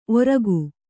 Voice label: "ಓರ್ಗೈ", Kannada

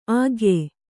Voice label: "ಆಖ್ಯೆ", Kannada

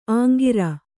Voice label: "ಅಂಕುರ", Kannada

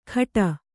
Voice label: "ಖಟ", Kannada

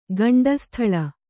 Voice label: "ಗಂಡಸ್ಥಳ", Kannada

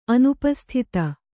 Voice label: "ಅನುಪಸ್ಥಿತ", kannada

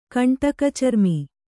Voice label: "ಕಂಟಕಚರ್ಮಿ", Kannada

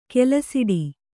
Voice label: "ಕೆಲಸಿಡಿ", Kannada

